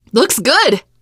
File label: hurrah, teen girl, survivor voice